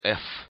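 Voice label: "ф", Russian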